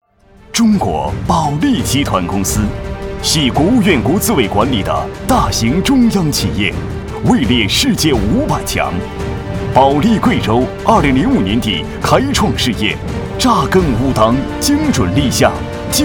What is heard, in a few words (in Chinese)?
男 保利地产（大气激昂）